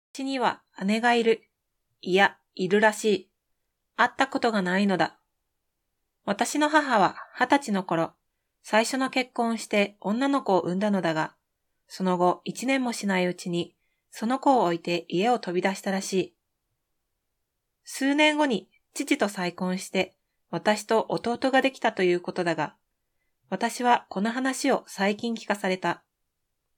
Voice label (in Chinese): 日语女; 外语; 小语种